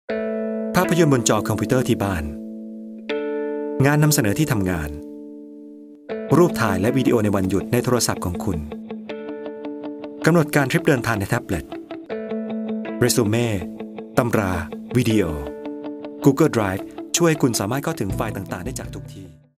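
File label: Thai, male, explainer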